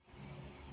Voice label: Voice Note